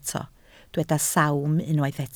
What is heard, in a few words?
"sawm", Welsh